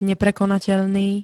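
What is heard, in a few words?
"neprekonateľný", Slovak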